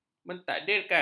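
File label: "mentakdirkan", Malay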